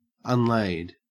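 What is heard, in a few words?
"unlaid", Australian English; pronunciations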